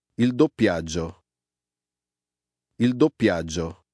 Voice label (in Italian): doppiaggio